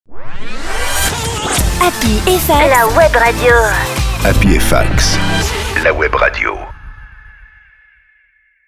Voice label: webradio; Happy et Fax la web radio

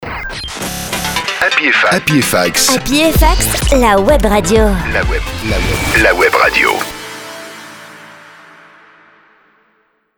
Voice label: webradio; Happy et Fax la web radio

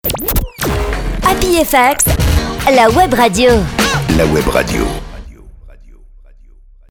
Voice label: webradio; Happy et Fax la web radio

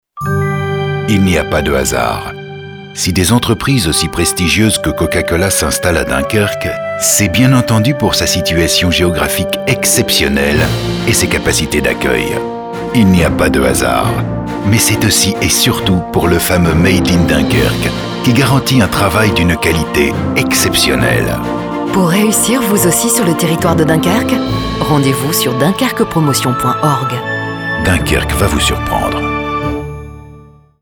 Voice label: Rassurante; DUNKERQUE

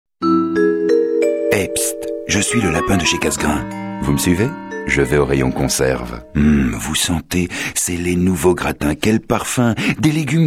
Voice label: Souriante, voix off; CASSEGRAIN

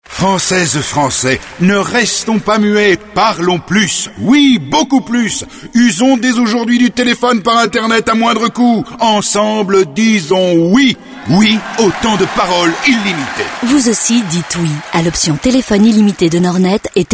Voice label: Orateur; NORDNET

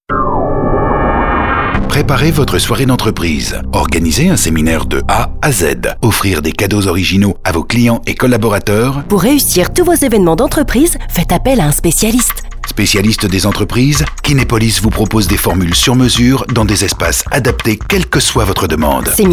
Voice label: Promotionnelle; KINEPOLIS

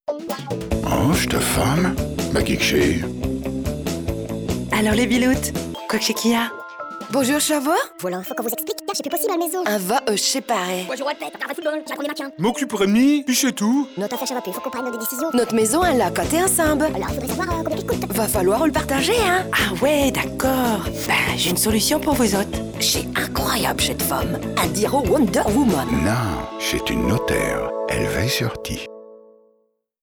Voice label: Chti; NOTAIRE

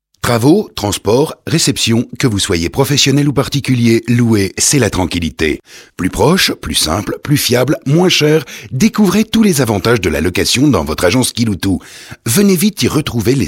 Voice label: Bienveillante; Simple, proche, fiable, pas cher, tous les atouts d'une location efficace pour vos travaux; KILOUTOU

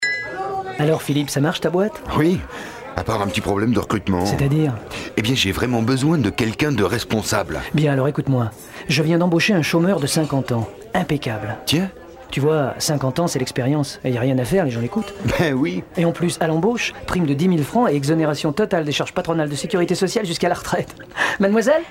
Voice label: Conversation; ANPE

